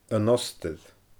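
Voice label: "An Ostair", Scottish Gaelic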